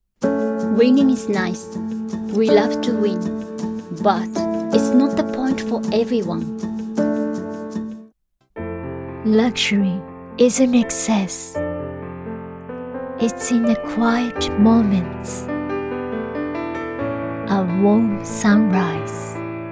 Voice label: english commercial